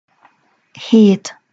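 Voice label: "het", Hungarian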